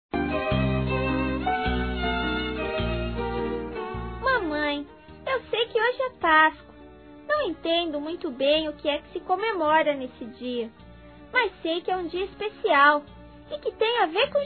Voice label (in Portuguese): FELIZ PASCOA MAMÃE (VOZ DE CRIANÇA), Telemensagens